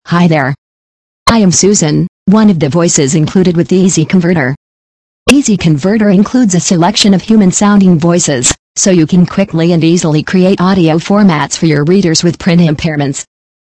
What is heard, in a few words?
Expressive; Expressive Susan; easy converter